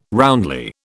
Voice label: "roundly", British

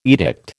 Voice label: "edict", American

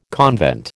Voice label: "convent", American

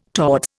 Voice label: "towards", Indian

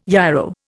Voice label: "yarrow", Indian

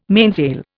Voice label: "mainsail", Indian

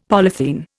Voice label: "polythene", Indian; Dictionary

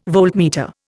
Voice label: "voltmeter", Indian

